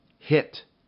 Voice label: "hit", EN; Rhyme Test